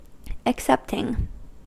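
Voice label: "excepting", American English; pronunciations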